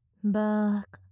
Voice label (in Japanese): バーカ・近・右, ASMR